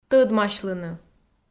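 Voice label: "тӧдмасьлыны", Komi, female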